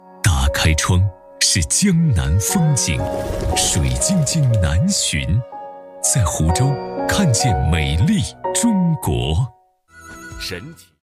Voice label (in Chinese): 男 广告类; CCTV-1综合频道; 水晶晶南浔; 美丽湖州城市推介片